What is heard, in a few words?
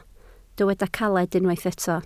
"caled", Welsh